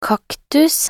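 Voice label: "kaktus", Norwegian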